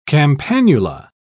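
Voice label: "campanula", British English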